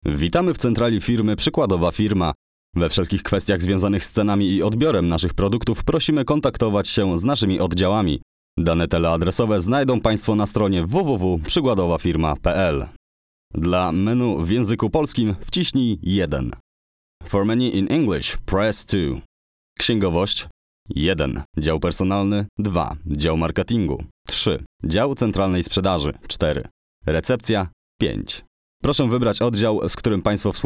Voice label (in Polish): glos meski